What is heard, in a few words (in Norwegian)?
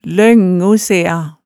"løngu sea", hemsedalsmaalet tale